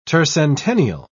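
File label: "tercentennial", British English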